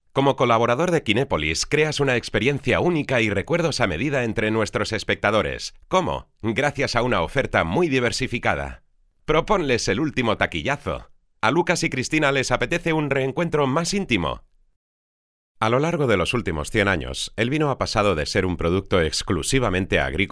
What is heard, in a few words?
Programa Presentacion Spanish VO